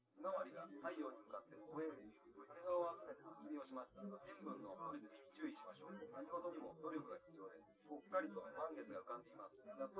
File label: male, live rec, 250ms, 5cm